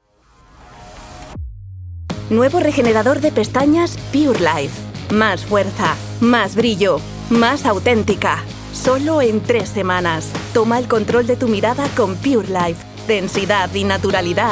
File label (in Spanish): TONO PUBLICITARIO